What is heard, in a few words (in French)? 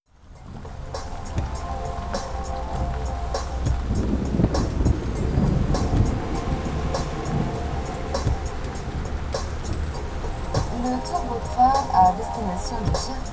voix car; audio ease speakerphone